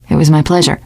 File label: you are welcome, teen girl; survivor voice